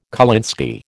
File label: "kolinsky", American